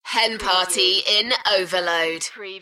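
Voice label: UK female, DJ vocals; Hen party in overload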